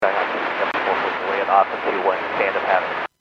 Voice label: Airports 122.7, Radio; KSTE